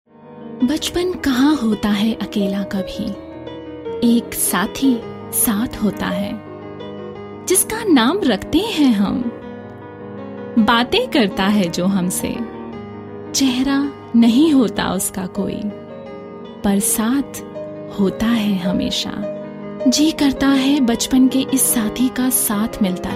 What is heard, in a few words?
delhi, female voice